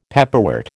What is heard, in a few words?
"pepperwort", American